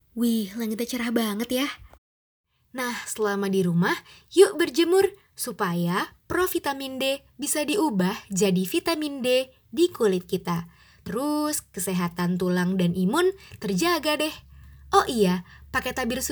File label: BAHASA INDONESIA CASUAL